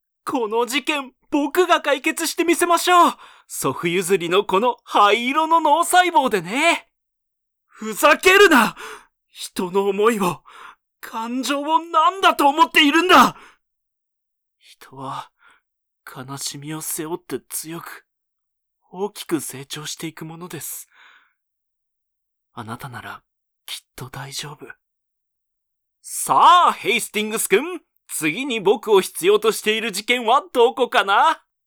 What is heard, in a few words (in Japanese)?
少年